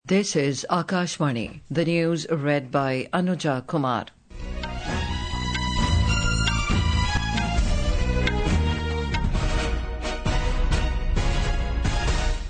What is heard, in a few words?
English; Larsen and Toubro Limited for acquisition of 3 cadet training ships; Hourly News